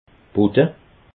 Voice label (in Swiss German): "butte", alsace